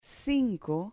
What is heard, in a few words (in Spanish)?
"cinco", Spanish